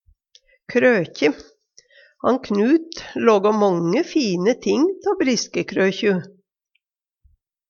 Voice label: krokje, Numedalsmål

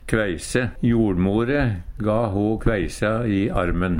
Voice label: "kveise", Numedalsmål

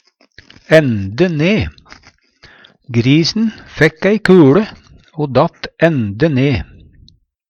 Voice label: ende ne, Numedalsmål; rett ned

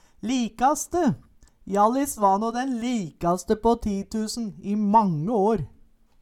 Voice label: likaste, Numedalsmål

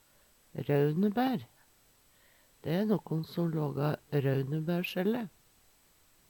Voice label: rounebaer, Numedalsmål; røunebær; Dæ æ nokkon som lågår røunebærsjelle.; Substantiv inkjekjønn; Planteriket; Veggli

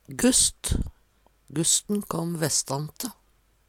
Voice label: gusst, Numedalsmål; Vêr og føre

